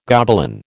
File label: "Gobelin", American